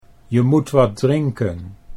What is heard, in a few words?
"je moet wat drinken", Dutch